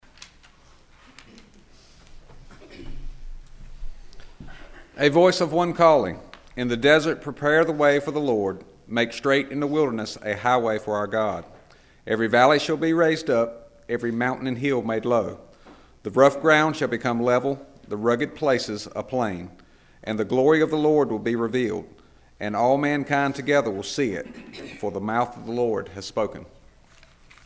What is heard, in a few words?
narration; Kipling United Methodist Church